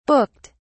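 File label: "booked", British English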